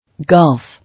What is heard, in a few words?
"Golf", English